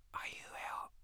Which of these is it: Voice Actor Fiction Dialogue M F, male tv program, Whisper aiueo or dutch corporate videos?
Whisper aiueo